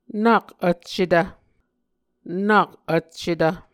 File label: "nak vccetv", Muscogee; Mvskoke Words with Repeated Consonants; Onvkv Ohhvyayices; A Dictionary of Creek/Muskogee; SIL International